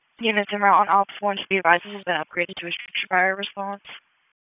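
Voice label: FIRE Operations, p25; FIRE OPS 1; wake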